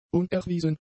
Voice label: "unerwiesen", German; adjectives

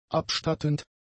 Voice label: "abstattend", German; adjectives

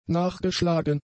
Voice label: "nachgeschlagen", German; adjectives

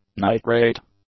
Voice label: "nitrate", English; nouns

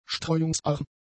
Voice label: "streuungsarm", German; adjectives

